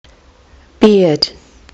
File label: "beard", kiwi english